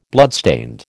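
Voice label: "bloodstained", American English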